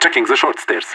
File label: checking short stairs, radio; LEET